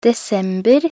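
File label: "desember", Norwegian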